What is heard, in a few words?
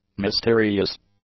"mysterious", English; adjectives